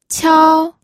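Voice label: "qiao", Mandarin Chinese, first tone; pinyin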